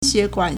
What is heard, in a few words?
"xue4guan3", Chinese